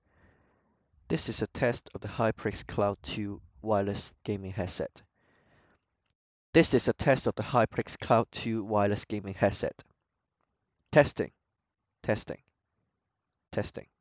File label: Wireless Microphone; HyperX Cloud II